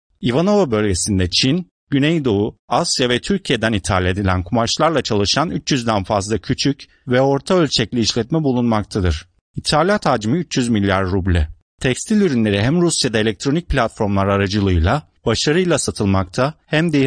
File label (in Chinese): 土耳其语男; 外语; 小语种